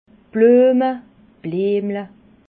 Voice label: "blueme", alsace

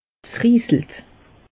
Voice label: "riiselt", alsace; meteo

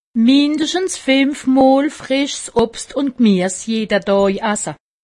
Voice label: "finef", alsace; medecine

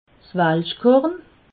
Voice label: "welschkorn", alsace

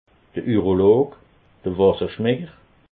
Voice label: "urolog", alsace

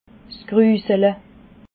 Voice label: "grusele", alsace

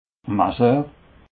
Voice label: "masseur", alsace

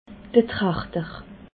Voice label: "trachter", alsace

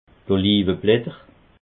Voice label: "oliveblatter", alsace

